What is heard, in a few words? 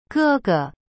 "哥哥" ge ge, chinese